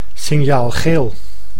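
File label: "signaalgeel", Dutch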